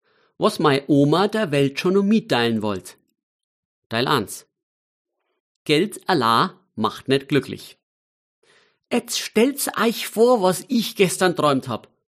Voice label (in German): Mundart